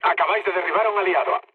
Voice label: player killed ally, mood low, speech; War Thunder